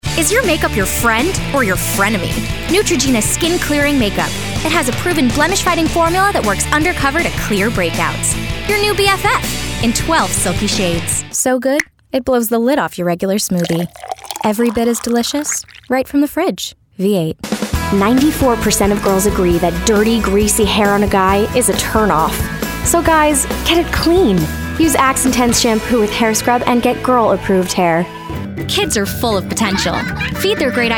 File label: Commercial